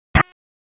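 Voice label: Dispatch 1, P25; WSO DISP 1; wake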